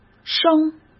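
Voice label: "升", Mandarin Chinese; hsk; chinese-flashcards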